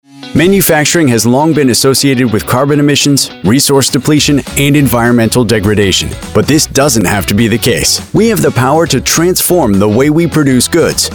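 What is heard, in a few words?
English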